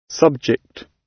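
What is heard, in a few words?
"subject", British English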